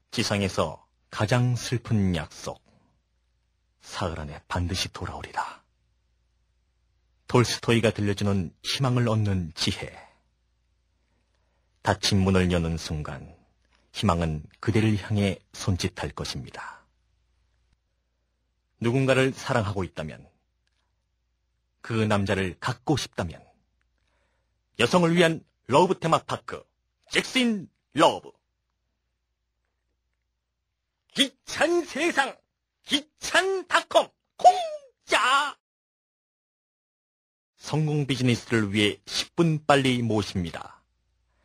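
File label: Korean male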